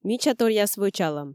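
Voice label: "мичаторъяс вӧчалӧм", Komi, female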